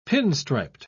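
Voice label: "pinstriped", British English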